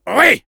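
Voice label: Soldier yes, French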